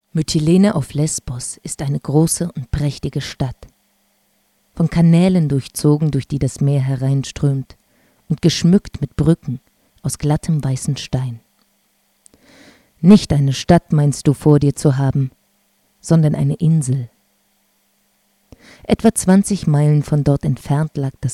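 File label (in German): Sprecherin deutsch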